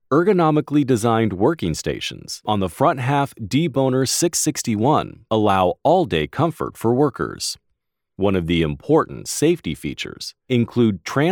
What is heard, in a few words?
Explainer Technical